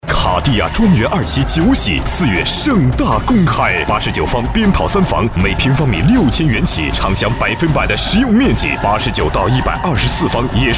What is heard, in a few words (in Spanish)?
Locutor varón chino